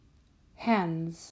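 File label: "Hands", English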